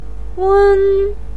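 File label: "weng1", Mandarin Chinese, pinyin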